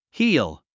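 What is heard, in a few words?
"heal", English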